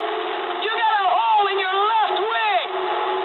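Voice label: English left wing mood low; War Thunder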